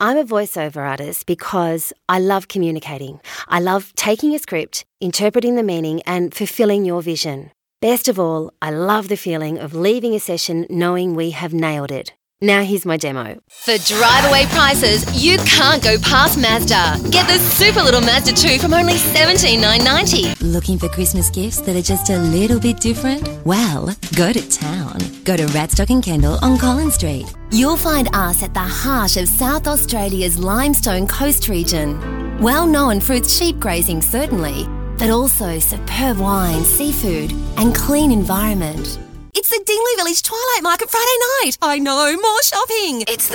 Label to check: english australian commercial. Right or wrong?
Right